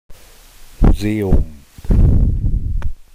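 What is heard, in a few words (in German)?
"Museum", German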